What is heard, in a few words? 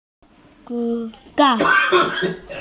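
"school", Mandarin, hearing-impaired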